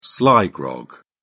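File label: "sly grog", English, British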